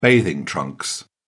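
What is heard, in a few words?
"bathing trunks", British English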